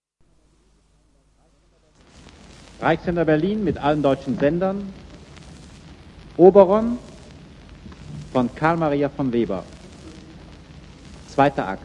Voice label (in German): ansage; reichssender berlin; oberon; 1936